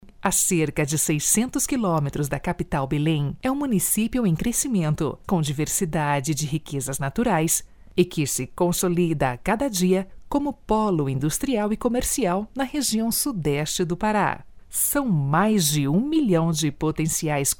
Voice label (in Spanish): locutora de brasil